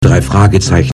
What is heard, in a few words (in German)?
erzaehler; drei fragezeichen